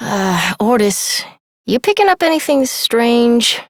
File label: Operator Voice, en; New War; Ascend Tower